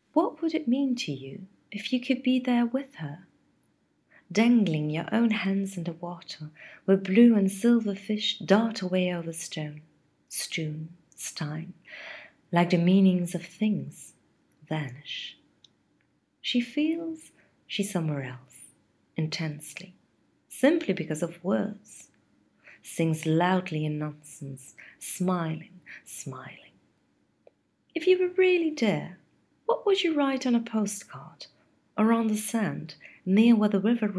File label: English UK voice